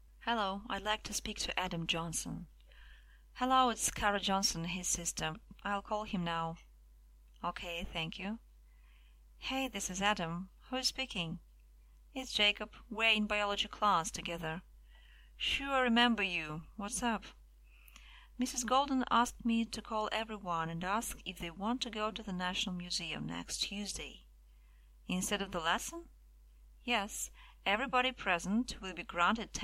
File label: phone talk